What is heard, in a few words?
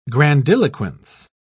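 "grandiloquence", English, British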